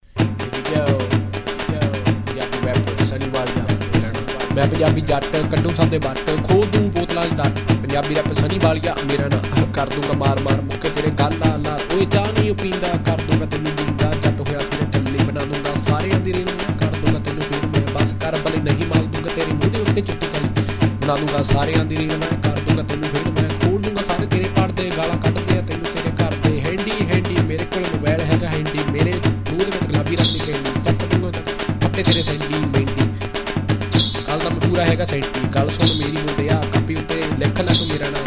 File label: SuperFast Punjabi Rap; Punjabi Rapper